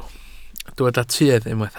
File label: "tuedd", Welsh